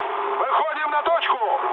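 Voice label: near waypoint, mood med, Russian; War Thunder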